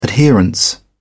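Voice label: "adherence", British English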